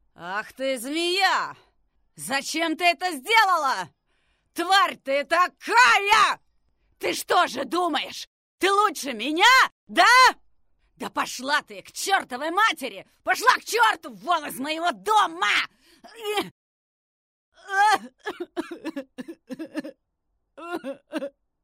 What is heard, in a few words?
russian f character